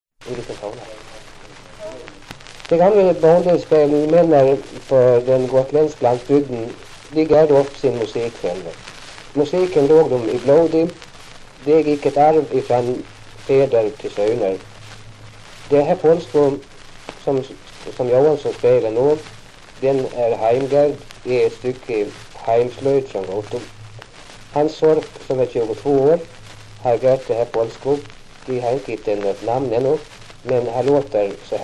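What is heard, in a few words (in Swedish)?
Gothem Gotland, dialekter, Svenska sagor; Folkminnen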